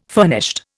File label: "furnished", Indian